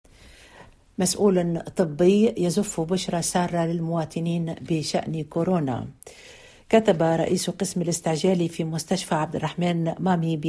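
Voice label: Arabic, General; GoLocalise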